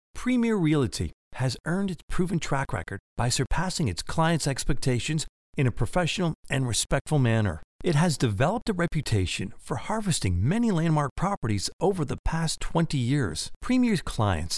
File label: Corporate Narration